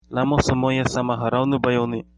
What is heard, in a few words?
long time no see nepali